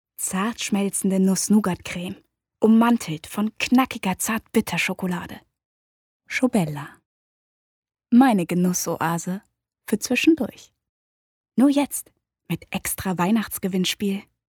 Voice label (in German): Sprecherdemo Werbung